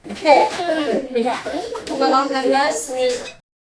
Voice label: kids discussing